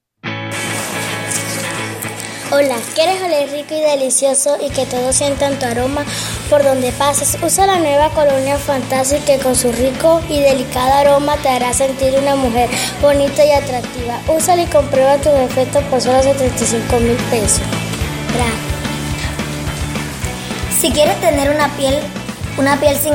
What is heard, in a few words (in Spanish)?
comerciales